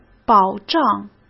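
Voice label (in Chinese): "保障", Mandarin Chinese; hsk; chinese-flashcards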